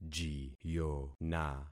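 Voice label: "jiyona", concatenated syllables; Affixing experiment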